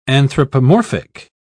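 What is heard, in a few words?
"anthropomorphic", English, British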